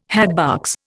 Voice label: "hatbox", Indian